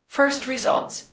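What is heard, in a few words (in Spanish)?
Voice Acting, es, female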